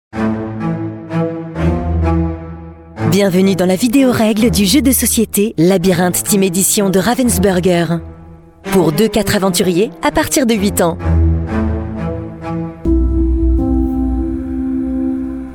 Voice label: french voice over female documentary